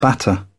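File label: "batter", British English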